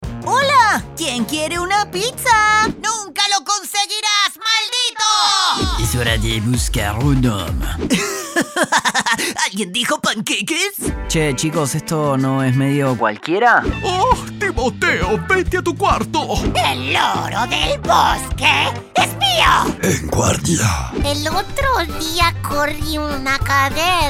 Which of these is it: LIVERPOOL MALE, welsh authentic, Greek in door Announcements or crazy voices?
crazy voices